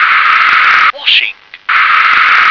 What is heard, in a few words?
"washing", English